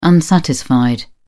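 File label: "unsatisfied", English, British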